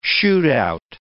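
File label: "shootemup", English; shoot-out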